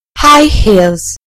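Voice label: "high heels", British English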